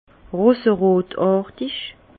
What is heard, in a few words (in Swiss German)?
"roserotartig", Bas Rhin; vin; rosâtre